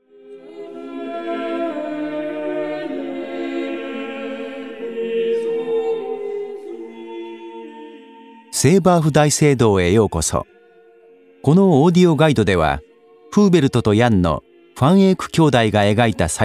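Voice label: Japanese; LamGods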